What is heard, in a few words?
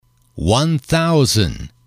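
"one thousand", English, male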